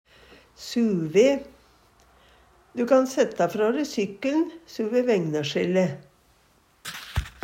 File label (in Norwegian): su ve, Numedalsmål; sør ved; Adverb